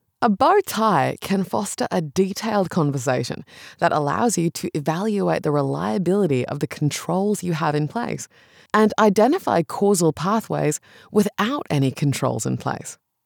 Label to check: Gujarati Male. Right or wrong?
Wrong